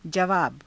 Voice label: "javaava", Hindi; जवाव; [男]返答